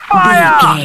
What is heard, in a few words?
radio voice male soldier fire